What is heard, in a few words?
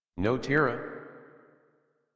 Adult, en, Dialog